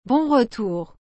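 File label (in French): "Bon retour.", French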